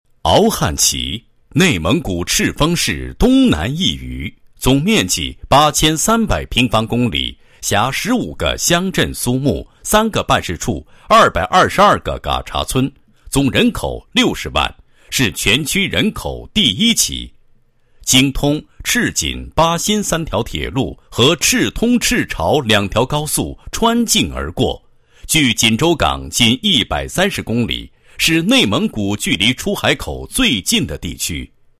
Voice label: 男 汇报 工作纪实; 美丽乡村入画来十个全覆盖

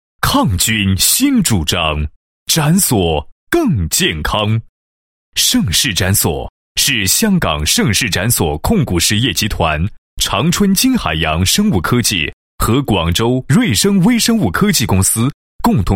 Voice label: 男 企业; 盛世盏索控股实业集团介绍

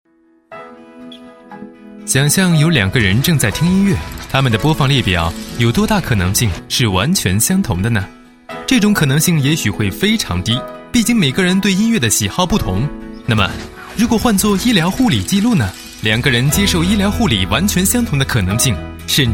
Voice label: 男, 解说; 干细胞生成组织

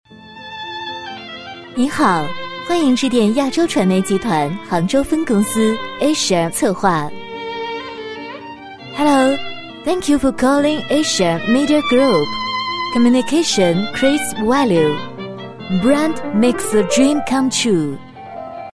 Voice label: 女 双语彩铃, 国语女声配音; 亚洲传媒集团

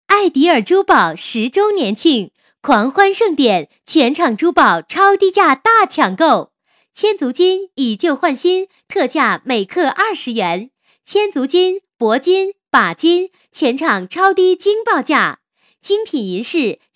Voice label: 女 促销, 国语女声配音; 爱迪尔珠宝

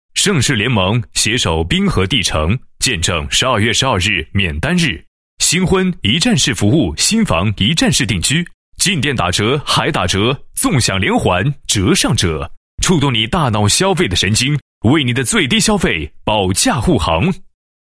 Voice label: 男 促销广告; 盛世联盟携手滨河帝城

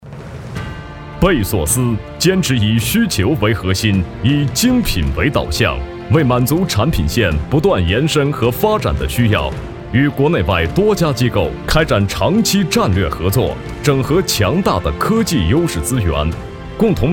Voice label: 男, 专题; 贝索斯

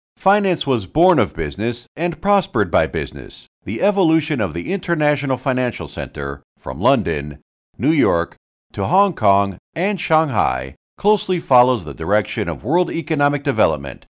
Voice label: 宣传片, 中英文对照; 金融城宣传片文稿

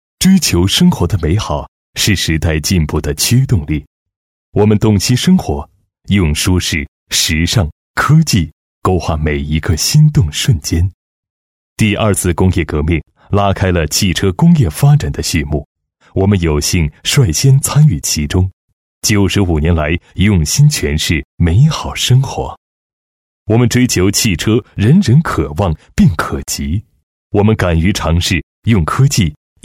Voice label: 男 广告 时尚品质 汽车上市片头, 国语男声配音; 大气专题

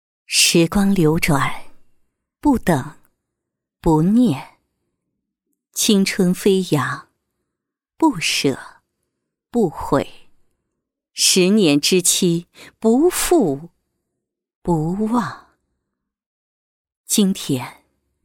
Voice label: 女, 独白; 时光流转配音

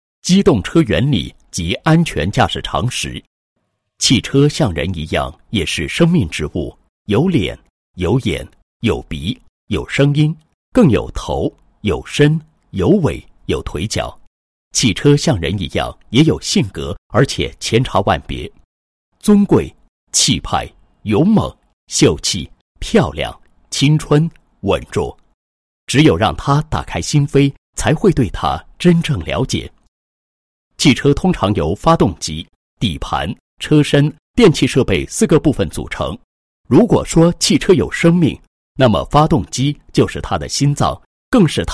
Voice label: 男 解说 机动车原理及安全驾驶常识

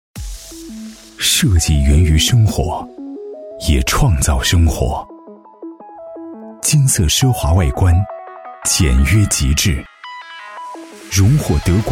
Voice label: 男, 品质科技, 热水器; 海尔

